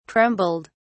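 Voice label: "trembled", British English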